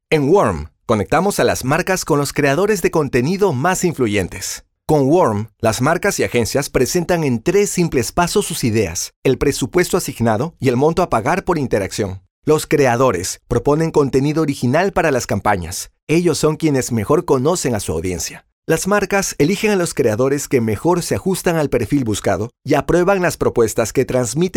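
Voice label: Spanish Latin America male voice over, narrative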